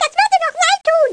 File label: threat